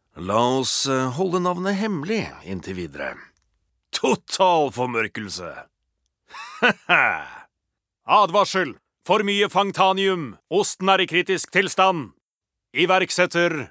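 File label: Norwegian character tough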